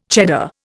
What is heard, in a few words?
"Cheddar", Indian